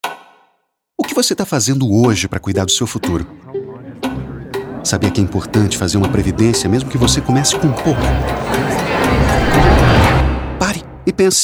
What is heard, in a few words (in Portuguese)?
locucoes voz grave